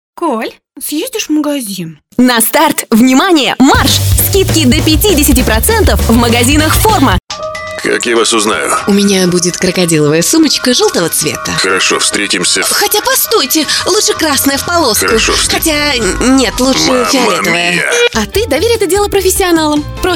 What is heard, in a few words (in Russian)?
Ru